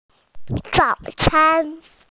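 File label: "zao can" Chinese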